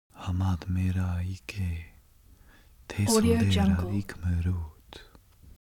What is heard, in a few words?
human vocal male pray